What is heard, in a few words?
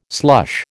"slush", American